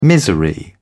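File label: "misery", British English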